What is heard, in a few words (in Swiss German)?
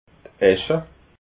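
"escha", Alsatian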